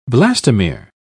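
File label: "blastomere", British English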